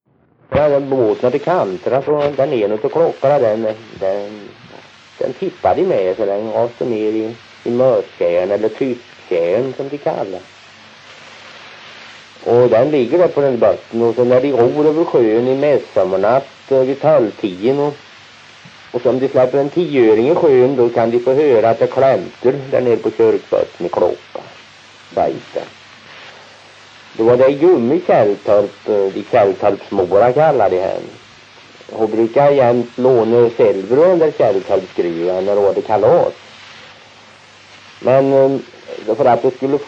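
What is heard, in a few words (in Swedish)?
Kroppa Värmland, svenska sagor dialekter; Folkminnen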